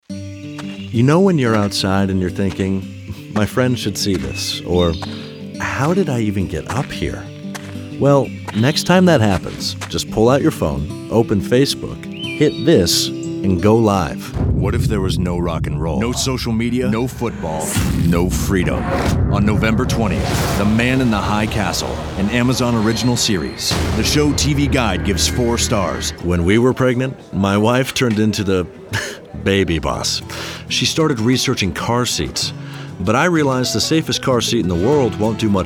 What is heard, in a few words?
Commercial